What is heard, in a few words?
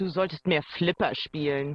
german voice; BALLY; CORVETTE